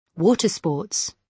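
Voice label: "water sports", British English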